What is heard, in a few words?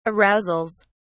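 "arousals", British English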